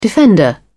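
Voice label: "defender", British English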